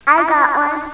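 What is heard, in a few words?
"have", English; BINGMATH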